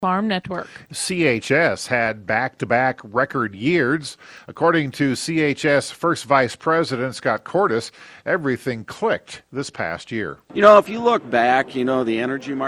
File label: air check